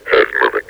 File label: has movement, combine soldier; hl2